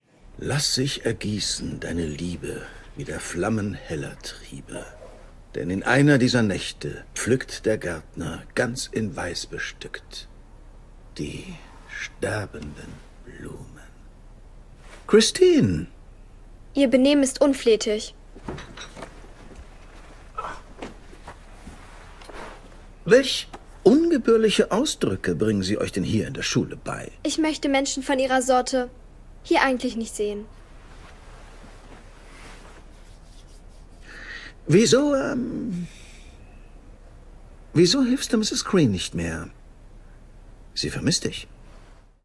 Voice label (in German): Synchron, maenner; Der Buchladen der Florance Green